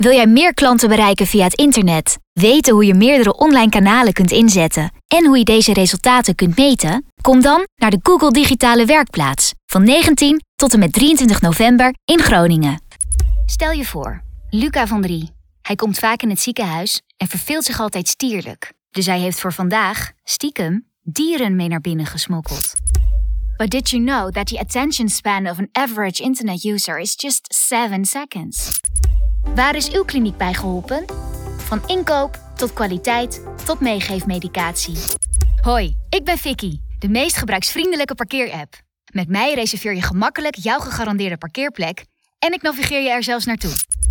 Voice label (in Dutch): Corporate